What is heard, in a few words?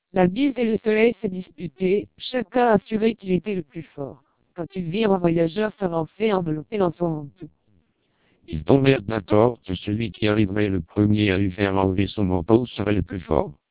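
TWELP 400 bps Vocoder, French speech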